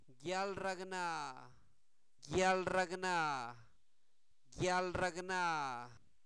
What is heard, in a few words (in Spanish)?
dolor, Zapoteco, santo domingo albarradas; INALI; enfermedades